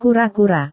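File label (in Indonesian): "kura-kura", Indonesian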